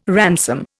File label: "ransom", Indian